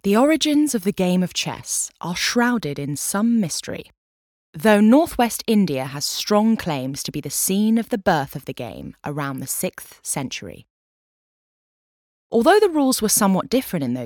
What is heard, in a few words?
Narrative